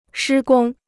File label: "施工", Chinese